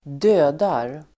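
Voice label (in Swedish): "dödar", swedish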